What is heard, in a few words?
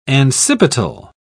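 "ancipital", English, British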